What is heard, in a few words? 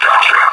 announce, combine soldier; hl2